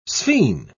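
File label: "sphene", British English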